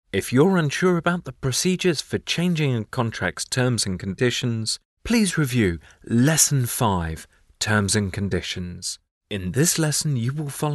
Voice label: Banking E-Learning